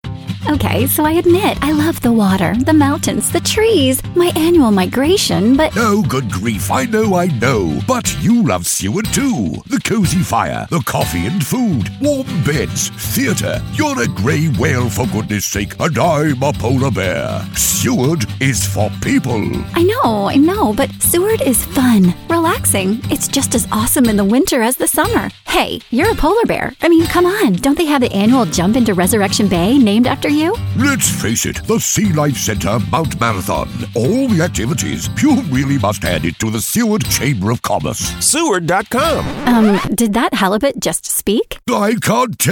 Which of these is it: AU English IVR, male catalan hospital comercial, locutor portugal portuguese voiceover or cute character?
cute character